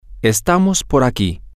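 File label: we are over here, Spanish, male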